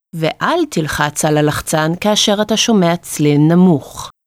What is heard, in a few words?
Ignore Auditory Nontarget, hebrew